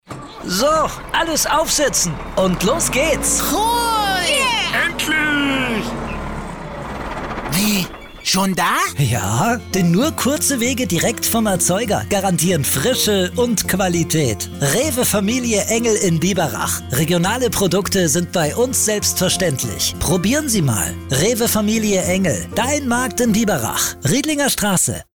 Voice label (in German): Spot